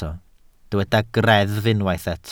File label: "greddf", Welsh